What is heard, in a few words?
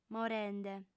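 "morende", female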